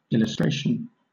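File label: "illustration", British English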